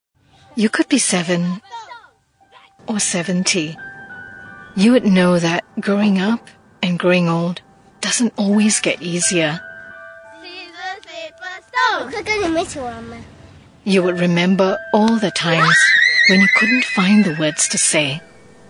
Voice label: Asian English social services; Calvary Community Care

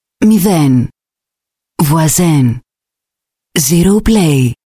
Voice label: Indian Bengali, Female

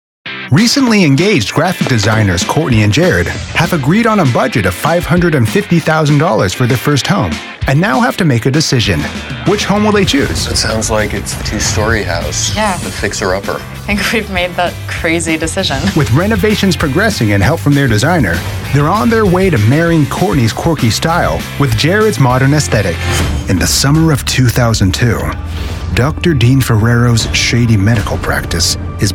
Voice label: US English TV Narration

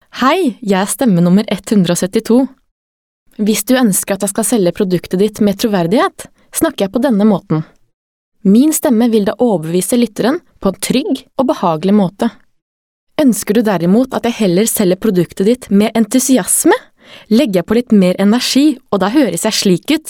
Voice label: Norwegian Voice Sample, Norwegian Female

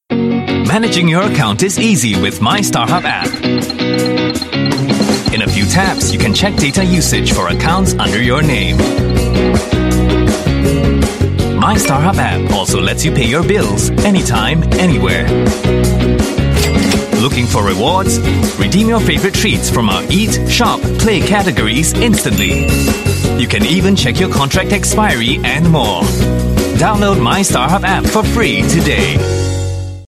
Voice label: App TVC, English Singaporean Male; My StarHub

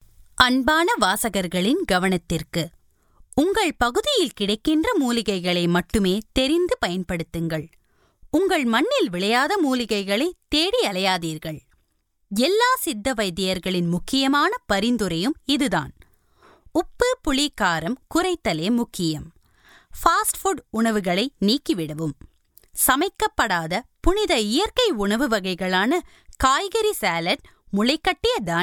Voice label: Tamil India Female

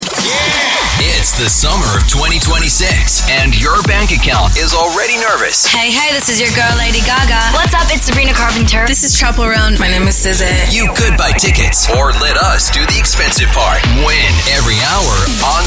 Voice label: AU English

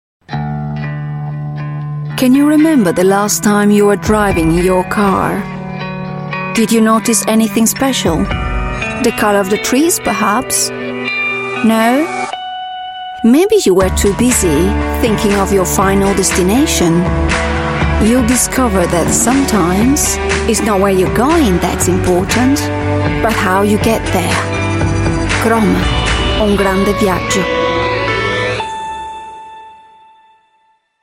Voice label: Italian Female Ad English; Fiat

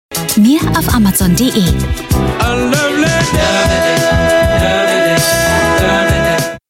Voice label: German commercials; Amazon DE

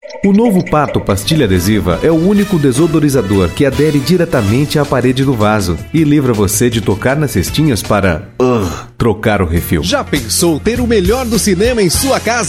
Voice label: Brazilian Portuguese M, Brazilian Portuguese Male

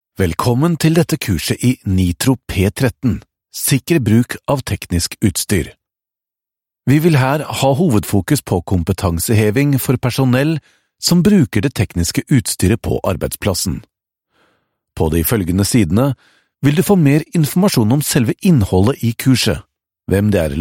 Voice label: Norwegian eLearning, Male

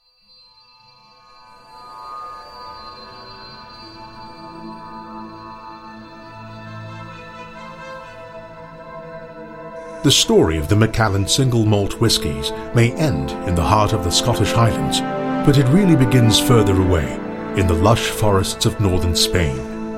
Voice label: Asian English Launch; The Macallan

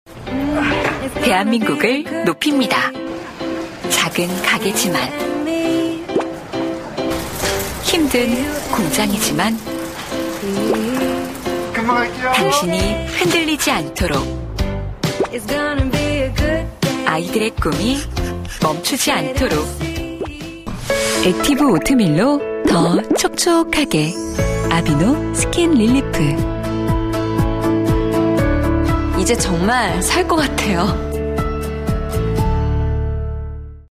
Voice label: Korean Voice Sample

